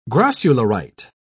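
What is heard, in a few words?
"grossularite", British English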